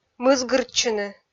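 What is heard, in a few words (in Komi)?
"мызгыртчыны", Komi, female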